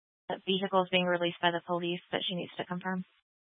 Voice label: Law Enforcement Channel; Garfield County; Channel 2; Glenwood Springs PD